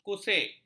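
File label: "kusik", Malay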